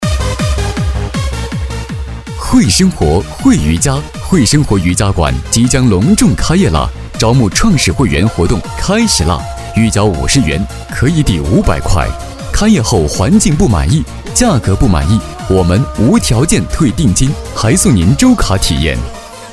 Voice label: 促销; 慧生活

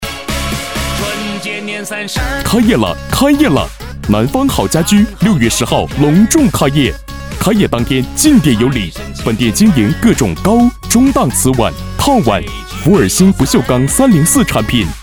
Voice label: 开业促销 棒棒哒 大气激情

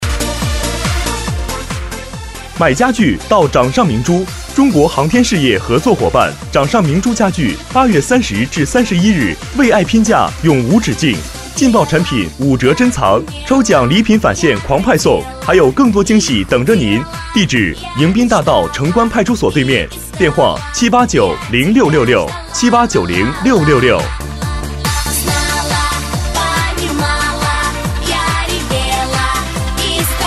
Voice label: 广告 家具促销

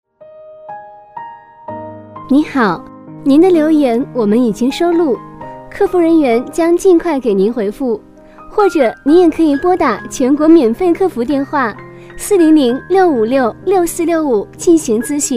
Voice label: 彩铃; 留言

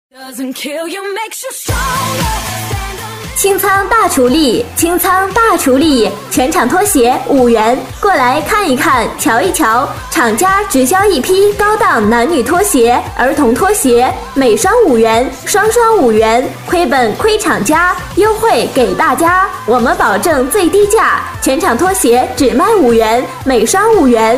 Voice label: 【激情促销】厂家大处理

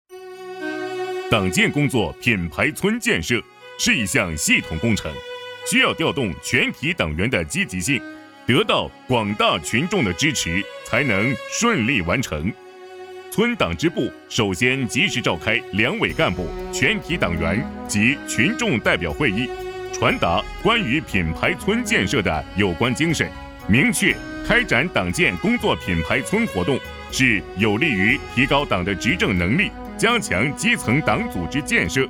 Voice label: 【宣传片】党建宣传片

